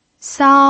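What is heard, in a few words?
"sao" Mandarin Chinese, first tone, pinyin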